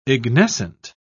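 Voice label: "ignescent", English, British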